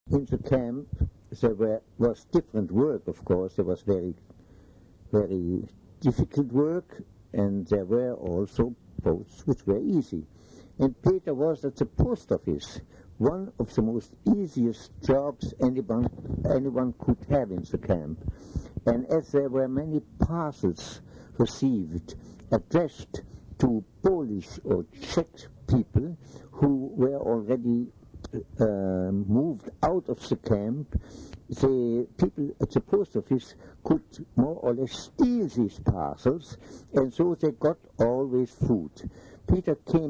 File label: Conversation; Diary Anne Frank